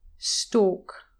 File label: "stalk", english pronunciation